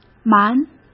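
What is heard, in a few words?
"瞒" Mandarin Chinese; chinese-flashcards; hsk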